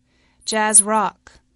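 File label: "jazz-rock", English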